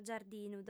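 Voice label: "giardinu", Sardinian, female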